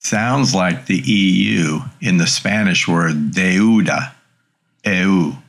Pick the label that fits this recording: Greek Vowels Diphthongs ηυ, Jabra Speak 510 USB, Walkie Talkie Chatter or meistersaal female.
Greek Vowels Diphthongs ηυ